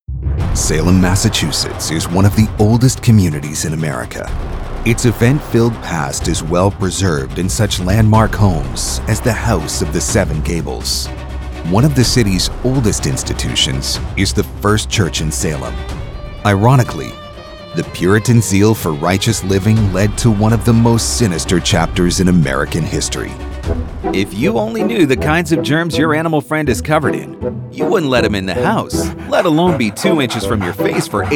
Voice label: TV Narration